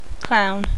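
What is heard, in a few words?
"clown", female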